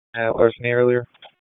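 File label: Police Dispatch, p25; Raleigh; Southwest District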